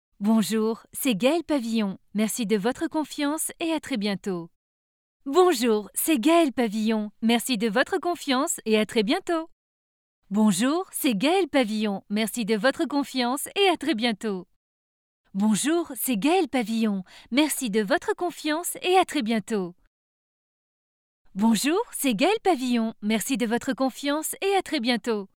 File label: presentation